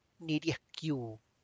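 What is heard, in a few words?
tlacochahuaya, Zapotec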